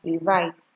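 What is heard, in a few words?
"revise", Nigerian Pidgin, female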